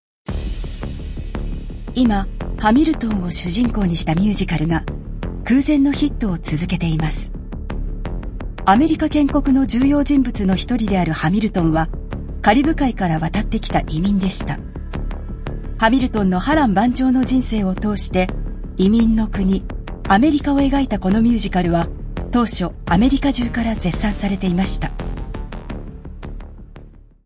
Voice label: ドキュメント ストレート; 「ハミルトン」

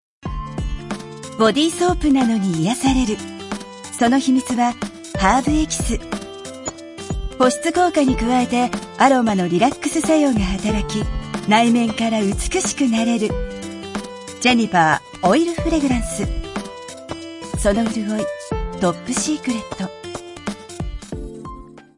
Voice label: 美容系 しっとり; 「ハーブエキス」

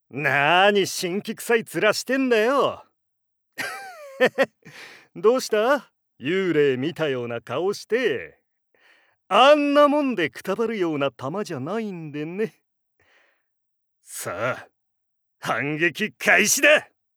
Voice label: セリフ 飄々

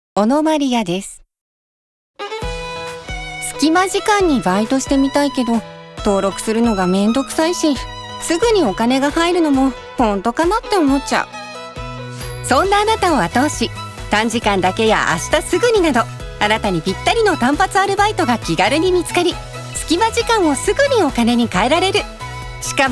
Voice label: 明るめ

